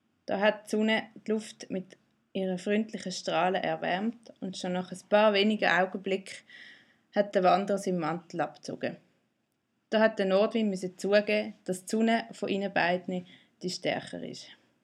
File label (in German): Nordwind Dialekt